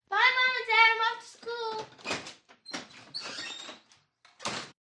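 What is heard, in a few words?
11 Yr Old Girl Off To School, kids play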